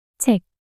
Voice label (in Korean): "책" 단어 여자